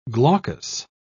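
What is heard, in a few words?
"glaucous", British English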